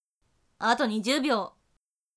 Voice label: 还剩20秒, 女生; 俺娘; 计时30秒

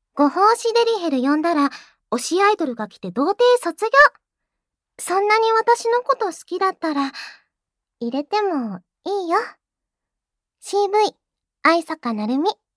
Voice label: 报幕

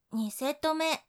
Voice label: 第2组, 女生

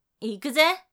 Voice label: 要上啦, 女生; 俺娘

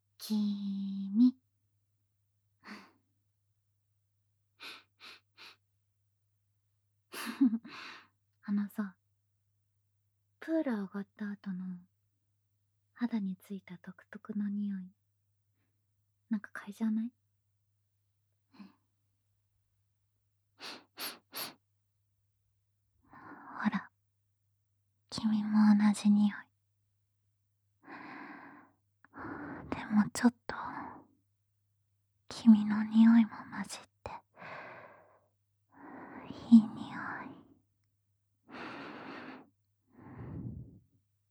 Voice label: 自由谈话; Re：蹂躏-共依存堕落的夜间泳池-～用约炮背德糟蹋来鼓励被玩之即弃的JK～

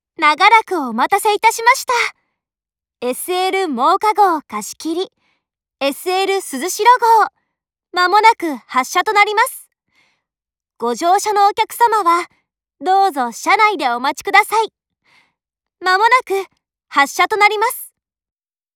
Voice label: 茂木方向 真冈站发车1分钟前 广播, 车内广播; 万冈铁路车内广播

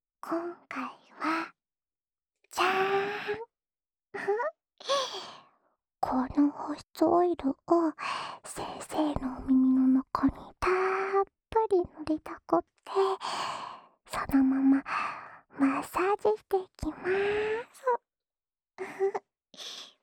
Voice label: 安眠用 精油按摩（左耳）, ASMR

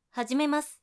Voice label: 开始, 女生 姐姐

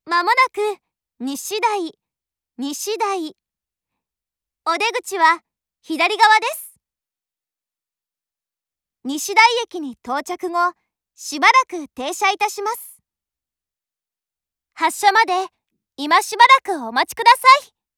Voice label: 真冈方向 西田井站抵达前 广播, 铁路车内广播; 万冈铁路车内广播

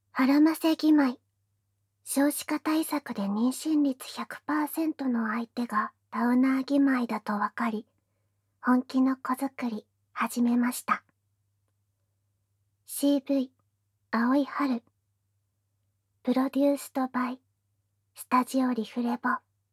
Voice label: 标题 朗读; 无SE

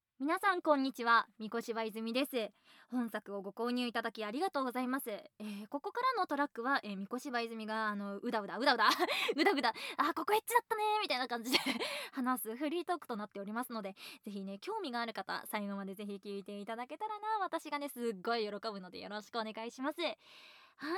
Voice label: 自由谈话, ASMR

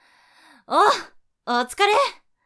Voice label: 哦！辛苦了！, 女生; 俺娘

